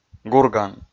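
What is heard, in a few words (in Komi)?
"гурган", Komi, male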